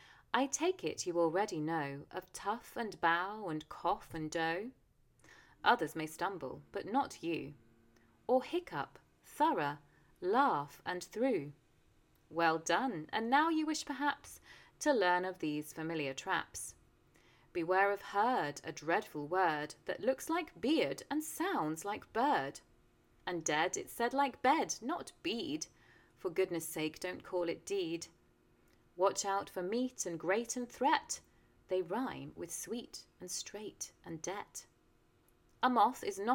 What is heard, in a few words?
pronunciation poem, Modern RP